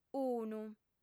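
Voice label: "unu", Sardinian; fem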